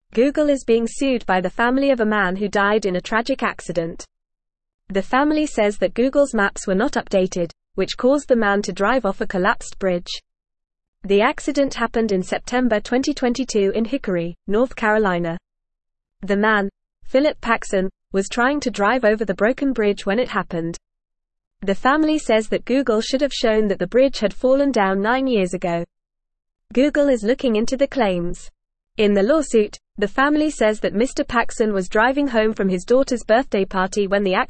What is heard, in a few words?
English Upper Intermediate FAST Reading; English Newsroom; Family Sues Google Over Outdated Maps Leading to De